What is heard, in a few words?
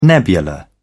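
"nebula", British English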